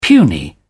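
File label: "puny", British English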